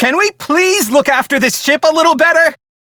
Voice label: Ship Low, Male; Dialog; Warframe; Railjack Crew; Perrin Sequence